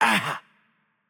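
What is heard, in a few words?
Turkish hurt light voice; bloom minigame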